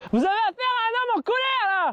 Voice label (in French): homme en colere